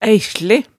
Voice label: "æihsle", hemsedalsmaalet tale

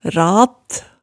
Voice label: "rat", Hemsedal

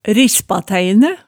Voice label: "rispatæinæ", Hemsedal

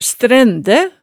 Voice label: "strændæ", hemsedalsmaalet, tale

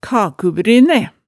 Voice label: "kakubryne", hemsedalsmaalet tale

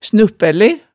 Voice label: "snuppæle", hemsedalsmaalet

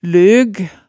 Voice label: "løg", hemsedalsmaalet tale

